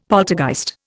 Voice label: "poltergeist", Indian; Dictionary